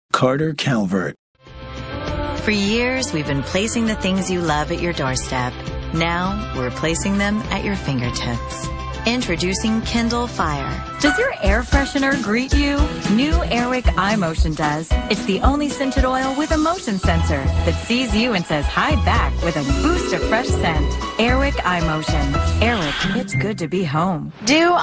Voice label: commercial female